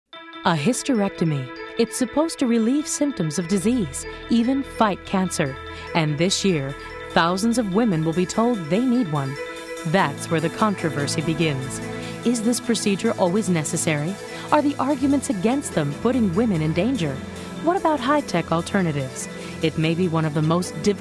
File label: Narration Demo